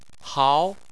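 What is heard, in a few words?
"hao", Chinese, second tone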